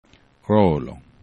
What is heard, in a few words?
"rolo", esperanto